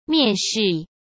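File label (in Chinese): "面试", Mandarin Chinese; hsk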